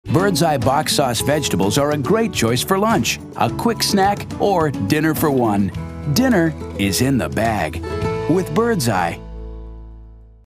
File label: friendly